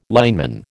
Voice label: "lineman", American